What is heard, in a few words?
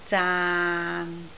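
"zaan6", Cantonese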